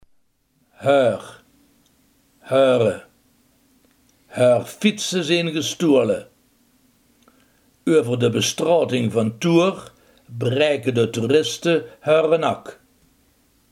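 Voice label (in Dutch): hun, Limburgse gesproken woordenboeken; hun, häör, häöre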